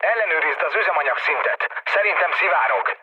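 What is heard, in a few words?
Hungarian tank damaged mood low, speech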